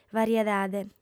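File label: "veriedade", lingua sarda, female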